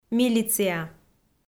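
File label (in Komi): "милиция", Komi, female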